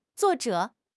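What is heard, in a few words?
"zuo4 zhe3", Mandarin Chinese; HSK; HSK4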